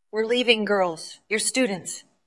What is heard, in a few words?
VoiceActing es F